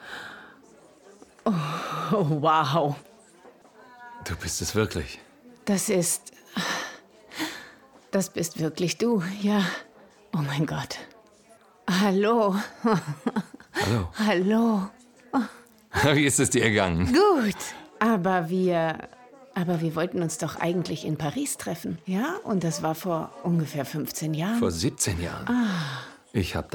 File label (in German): Synchrondemo Sprecherin; überwältigt & zärtlich & liebevoll zugewandt; Alte Liebe